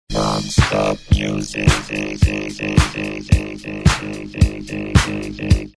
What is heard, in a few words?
robot voice tone